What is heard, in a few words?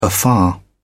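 "afar", English, uk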